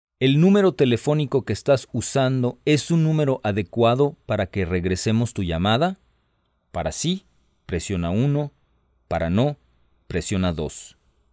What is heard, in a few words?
Spanish, hotline